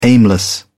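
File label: "aimless", British English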